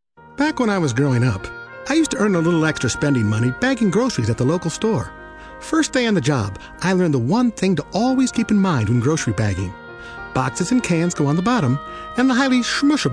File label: Voice Demo